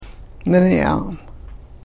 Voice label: "niniaan", karuk